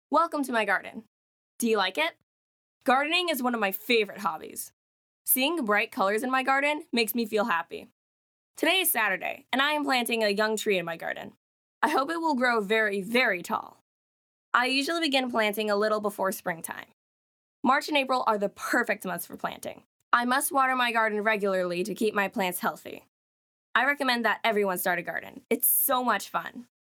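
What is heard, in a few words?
English, USA, child voice over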